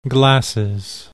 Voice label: "glasses", English

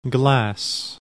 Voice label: "glass", English

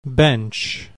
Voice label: "BENCH", English

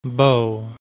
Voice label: "bow", English

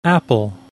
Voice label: "APPLE", English

